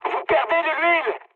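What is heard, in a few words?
French damaged oil mood high; War Thunder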